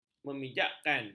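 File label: "memijakkan", Malay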